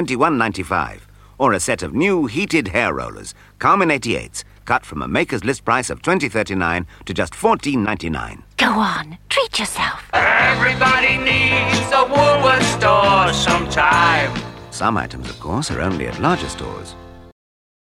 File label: Radio Advert; Woolworth Treat Yourself; Radio Hallam